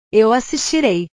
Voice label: "eu assistirei", portugues

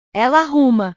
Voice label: "ela arruma", portugues

